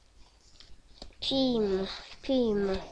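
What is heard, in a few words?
"beam", spoken word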